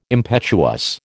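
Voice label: "impetuous", American English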